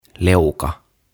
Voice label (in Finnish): "Leuka" Chin